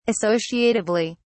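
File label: "associatively", British English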